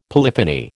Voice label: "polyphony", American